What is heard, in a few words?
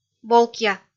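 "болкъя", Komi, female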